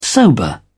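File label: "sober", British English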